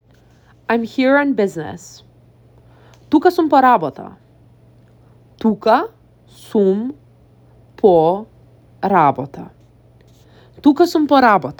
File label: I am here on business, macedonian; the talking macedonian phrasebook